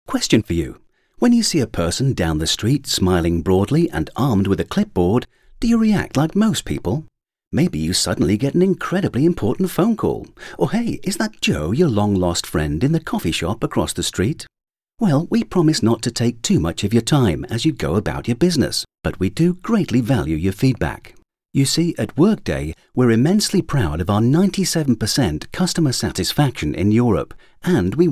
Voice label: British Voiceover Video; WORKDAY EXECUTIVE SURVEY